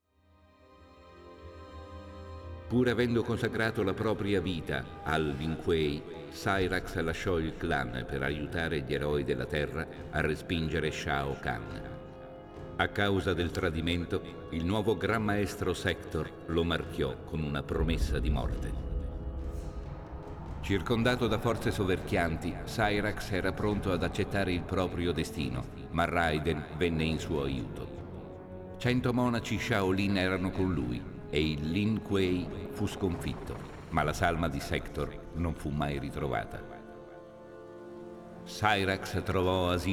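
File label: music voice-over endings Italian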